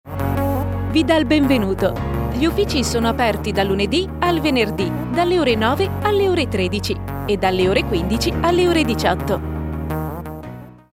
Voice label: Italian female telephone

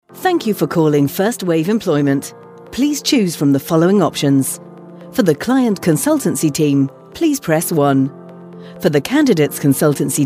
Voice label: IVR, Young Fun Upbeat Voice

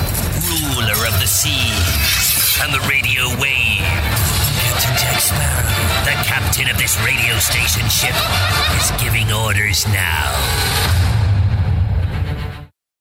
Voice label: pirate voice

